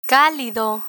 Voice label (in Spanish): "calido", Spanish; listening comprehension; learn spanish